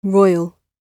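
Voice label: "royal", British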